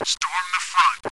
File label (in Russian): zvuki golosovykh komand; v igre counter-strike 1.6